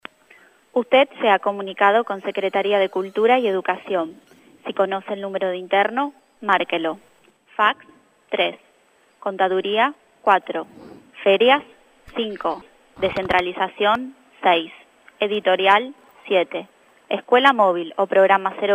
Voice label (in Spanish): mensajes telefonicos